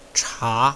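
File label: "cha", Mandarin Chinese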